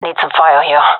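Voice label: request fire, gendarmerie female; vo; agents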